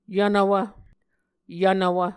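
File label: "yvnvwv", Muscogee word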